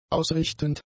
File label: "ausrichtend", German; adjectives